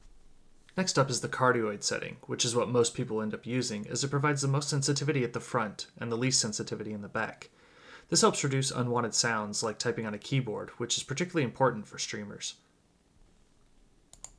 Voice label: Quadcast Cardioid